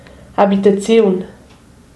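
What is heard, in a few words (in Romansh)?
"habitaziun", Romansh Sursilvan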